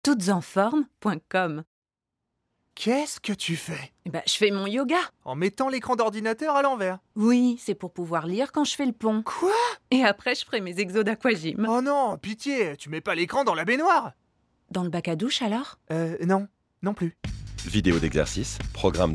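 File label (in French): Dialogue, voix; Decathlon; TV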